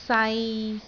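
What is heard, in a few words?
"sai3", Cantonese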